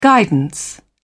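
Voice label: "guidance", British English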